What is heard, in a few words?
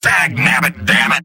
engineer negative vocalization; tf2; mvm